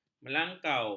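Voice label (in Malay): "melangkau", Malay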